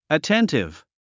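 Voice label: "attentive", English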